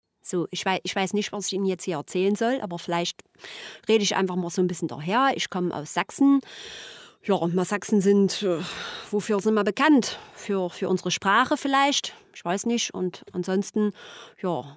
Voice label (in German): Saechsisch